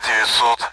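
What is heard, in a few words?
Speech Sound Russian Common AWACS Numbers; DCS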